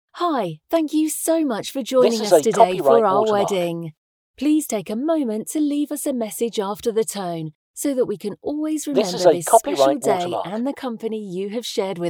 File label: Female Voice